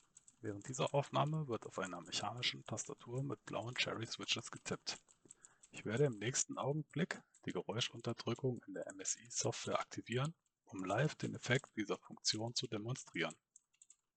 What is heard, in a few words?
MSI GH40 ENC Noise Suppression on